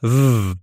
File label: phoneme v, American; American IPA phonic